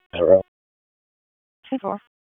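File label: Raleigh Police Southeast District Dispatch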